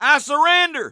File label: surrender, English Voice